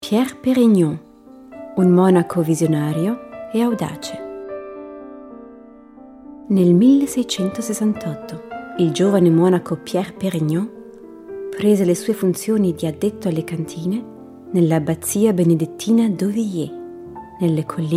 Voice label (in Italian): image film italiano